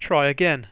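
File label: try again, English, Voice Sounds; Samsung SGH-i310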